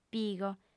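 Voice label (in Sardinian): "pigo", female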